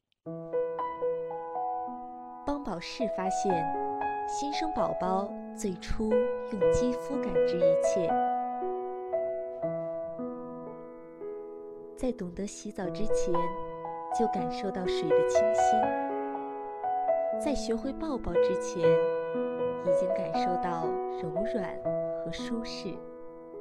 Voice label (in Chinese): 广告 配音